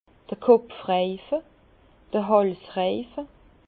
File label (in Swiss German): "kopfreife", Bas Rhin; vin; cercle de tête de cou